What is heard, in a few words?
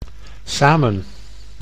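"samen", Dutch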